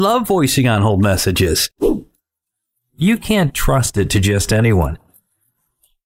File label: Male Voice Sample